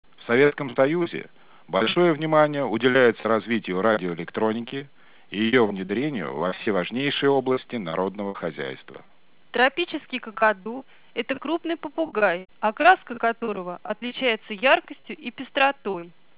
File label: speech 30ms 10 percent plc